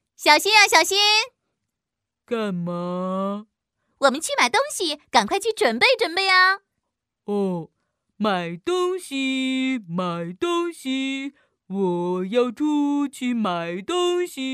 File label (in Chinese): 女 动画 风格 憨厚 可爱; 国语女声配音; 小新和妈妈